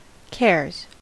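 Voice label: "cares", US English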